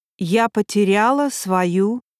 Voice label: ru useful phrases Female